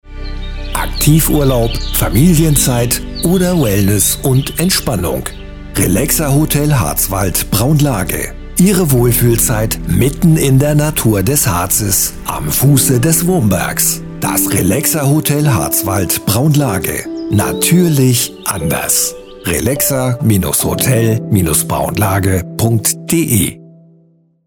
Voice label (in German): Spot; relaxa Hotel Haez Wald; relexa hotel harz wald braunlage